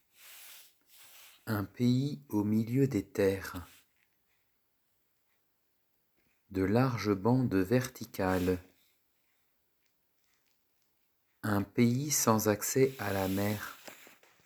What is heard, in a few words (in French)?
Dictée